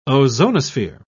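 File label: "ozonosphere", British English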